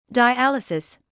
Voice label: "dialysis", English

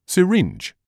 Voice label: "syringe", English

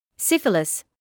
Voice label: "syphilis", medical English

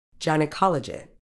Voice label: "gynaecology", medical English

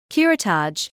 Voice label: "curettage", English

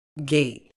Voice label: "gait", medical English

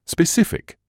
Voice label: "specific", English

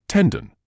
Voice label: "tendon", English

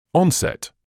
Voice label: "onset", English

